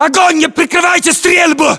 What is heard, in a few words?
order action boost, ru; localized english